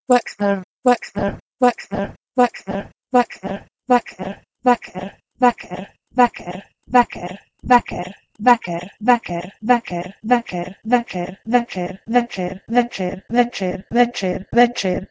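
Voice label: Proto-Indo-European "weksper" to Bosnian "vecher"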